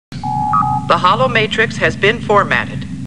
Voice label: Star Trek Computer Voice; StarTrekLCAR